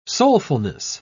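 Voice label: "soulfulness", British English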